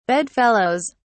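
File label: "bedfellows", English, British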